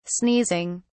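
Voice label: "sneezing", British English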